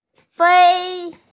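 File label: "fei1", Mandarin Chinese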